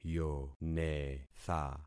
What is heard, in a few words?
"yonetha", concat syllables; Affixing experiment